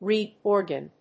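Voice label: "reed organ", English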